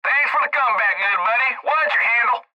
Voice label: Cb Trucker Radio; Radio Voice; Blastwave

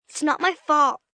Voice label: Not My Fault, 1 child, 7 yrs; Talking Sound Effects; VoiceBox; Twistedtracks

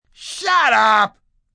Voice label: Shut Up Angry, Shut up, male, angry; Yelling

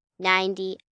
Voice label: Numbers "Ninety", 1 child, girl counting; Talking; Voice Box

